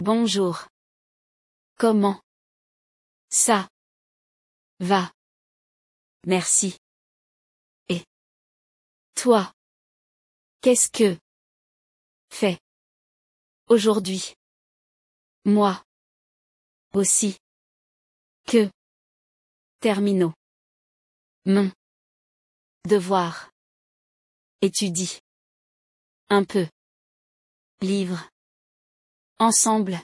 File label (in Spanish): vocabulari clase frances